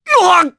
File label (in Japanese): Damage, jp; Dimael; kingsraid